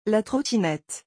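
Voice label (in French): "La trottinette", French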